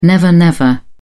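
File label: "never-never", British English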